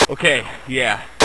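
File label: "yes", English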